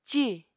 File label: "cì", Apatani